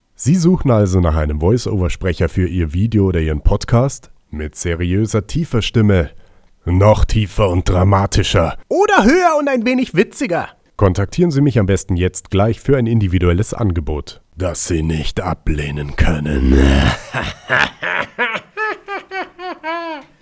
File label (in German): voice over sprecher; stadtshow